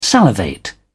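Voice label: "salivate", British English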